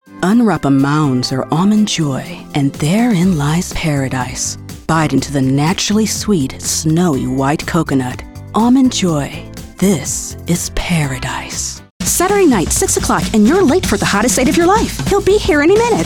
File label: commercial voice